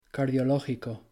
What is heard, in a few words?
"cardiológico", Spanish; natural stress in Spanish